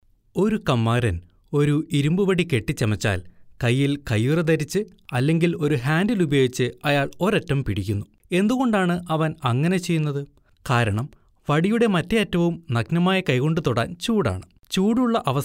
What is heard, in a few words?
Male Malayalam VO Sample e-learning